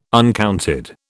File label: "uncounted", British